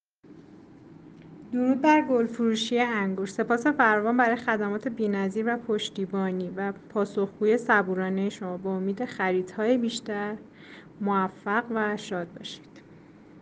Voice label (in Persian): صدای مشتری رضایت از خرید دسته گل رز